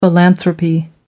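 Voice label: "philanthropy", British English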